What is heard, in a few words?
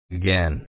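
"again", Australian, male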